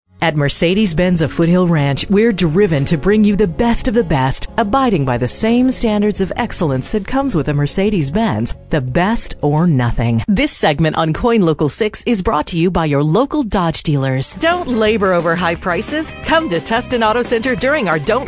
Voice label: DEALERSHIPS, voiceover